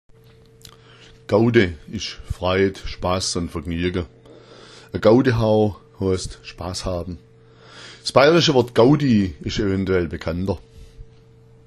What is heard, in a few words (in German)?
gaude, schwaebisch